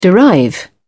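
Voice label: "derive", English, British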